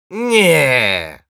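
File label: negative vocalization, Russian; Неее!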